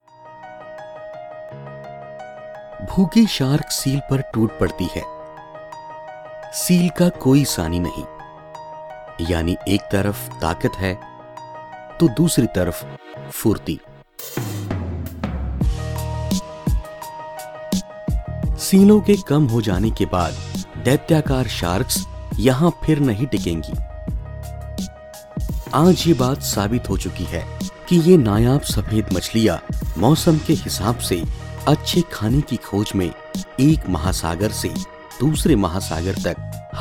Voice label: narration hindi; discovery